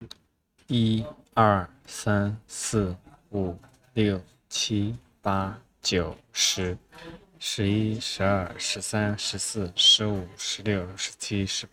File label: 48KHz rnn denoise; audio noise suppression mobile